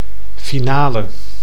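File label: "finale", Dutch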